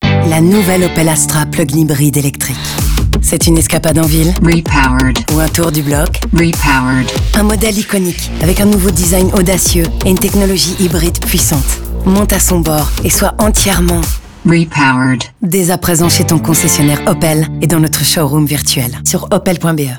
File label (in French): FR Radio Voice; Opel Astra; 20s; Opel-Salon-Astra; Opel Repowered